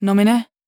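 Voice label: question short, voice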